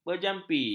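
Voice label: "berjampi", Malay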